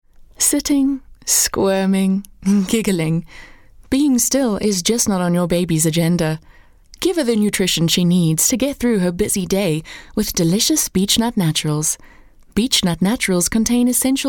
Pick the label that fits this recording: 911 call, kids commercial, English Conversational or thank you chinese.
English Conversational